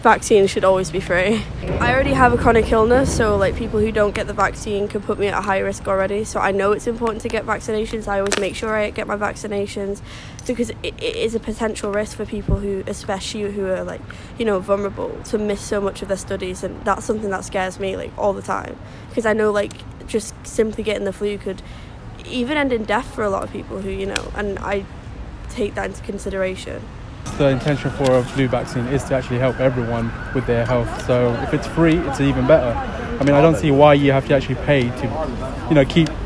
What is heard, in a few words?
flu jab vox pops